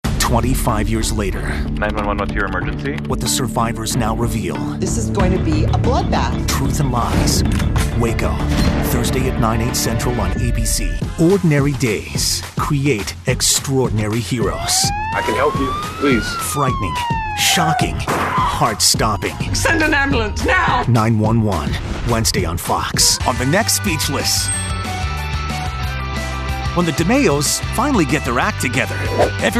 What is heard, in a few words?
promo male